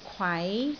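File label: "kwai2", Cantonese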